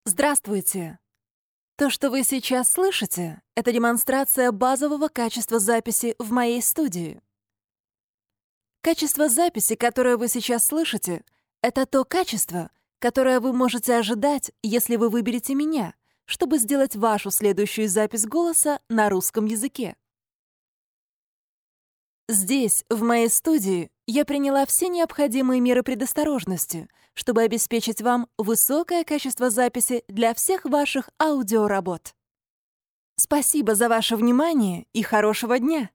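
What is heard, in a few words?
russian female narration; voice demos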